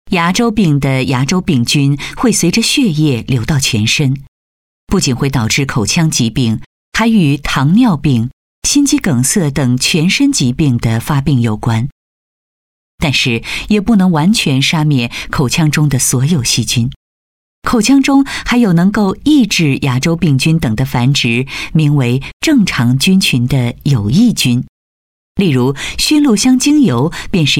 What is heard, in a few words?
chinese voice over female narrator